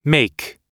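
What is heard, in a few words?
"make", English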